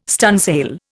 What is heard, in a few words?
"stunsail", Indian